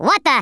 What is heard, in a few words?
"take cover", US Sports